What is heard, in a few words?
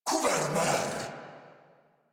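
Cover Me, Male, English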